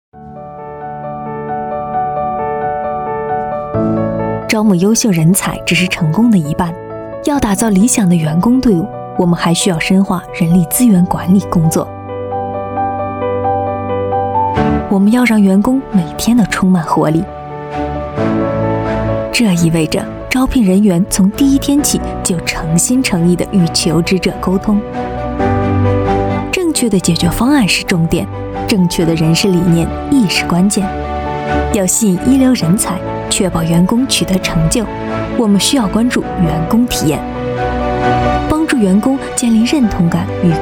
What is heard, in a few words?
Mandarin China Commercial; SAP